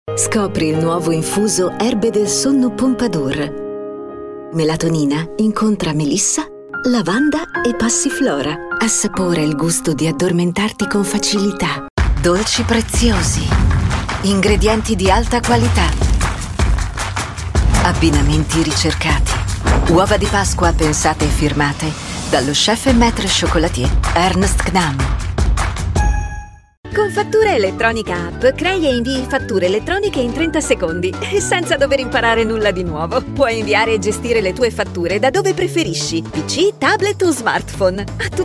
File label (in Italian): spot nazionali